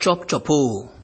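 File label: "chopchopo", Nigerian Pidgin, male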